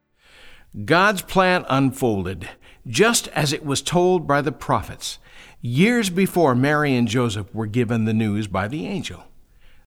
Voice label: narration